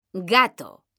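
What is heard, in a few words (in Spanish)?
"gato", Spanish, female; mekanta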